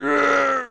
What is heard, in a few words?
Death Voice